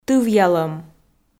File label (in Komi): "тывъялӧм", Komi